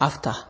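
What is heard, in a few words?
"after", Nigerian Pidgin, male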